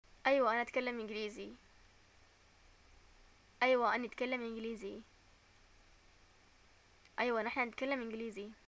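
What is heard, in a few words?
I speak English, Yemeni arabic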